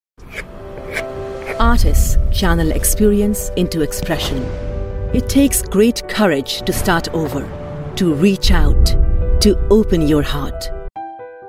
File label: Commercial Medley